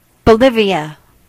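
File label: bolivia, Hebrew; gcompris-data; geography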